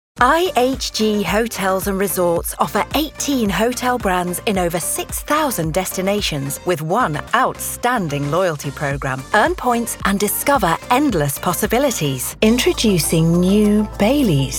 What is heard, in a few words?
Commercial Showreel